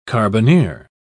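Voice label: "carbineer", English, British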